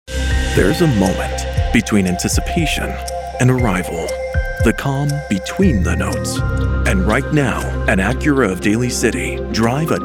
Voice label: Automotive voiceover for local TV ad, local Radio ad; Serious Luxury; Acura of Daley City; Automotive Voiceover Styles That Fit the Road Ahead